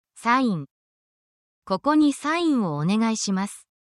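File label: Japanese word, japanese phrases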